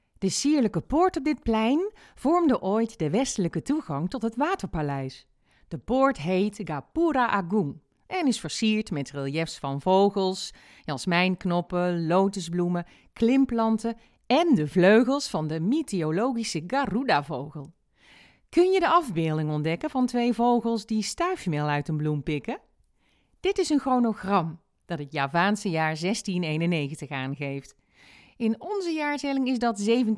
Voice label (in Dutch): Audiotours; Waterpaleis